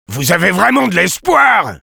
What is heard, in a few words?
sniper taunts, fr